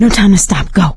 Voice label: emphatic go quiet, survivor, voice; source engine; left 4 dead 2; producer

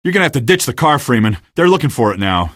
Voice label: ditch car, male; Source Engine; video game; coast; barn

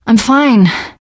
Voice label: getting revived, teen girl; survivor voice

